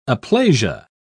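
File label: "aplasia", British English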